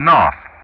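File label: "N", English; Ka-50; DCS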